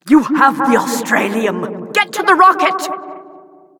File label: announcer misc nags